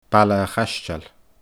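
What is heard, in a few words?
"Baile a Chaisteil", Scottish Gaelic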